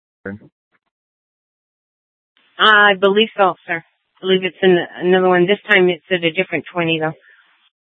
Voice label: Police Dispatch, scanner; Phoenix; 200 Black Mountain Precinct

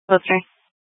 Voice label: Fire Dispatch; H- Scottsdale

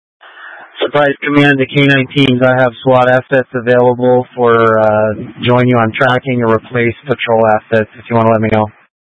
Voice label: Mutual Aid-Intersystem Interop B, scanner

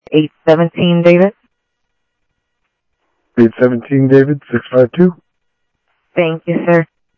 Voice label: Sheriff Central, scanner audio trunk; MCSO Central Sheriff-Central; ThompsonPeakScot

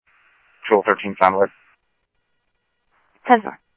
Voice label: PD Police, scanner audio

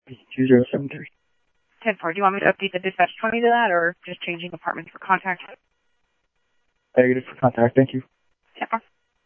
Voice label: Mesa Simulcast police; jumper

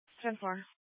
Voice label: Mesa PD Dispatch; 1 Fiesta Dispatch

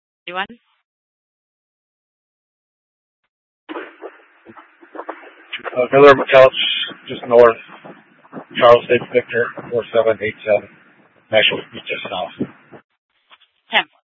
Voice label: Scottsdale PD Sth Dispatch, scanner

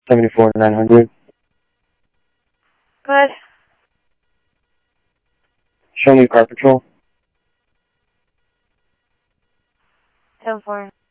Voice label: Security, scanner audio; Thompson Peak Hi; G-S Security

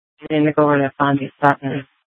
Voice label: Sheriff, scanner; Shrf Lakes

